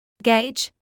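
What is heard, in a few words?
"gauge", British English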